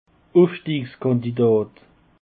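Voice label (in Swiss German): "ufstiegskandidat", Alsatian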